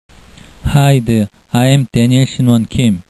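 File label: English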